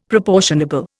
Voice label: "proportionable", Indian; Dictionary